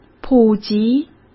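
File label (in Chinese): "普及", Mandarin Chinese; chinese flashcards; hsk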